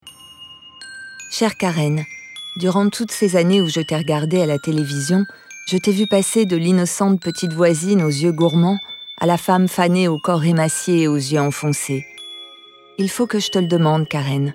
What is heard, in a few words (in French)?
doc voice over douce confidentielle